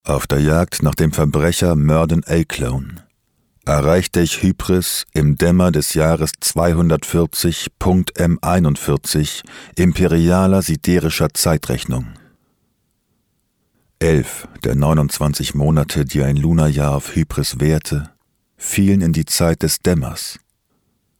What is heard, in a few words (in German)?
Hörbuch scify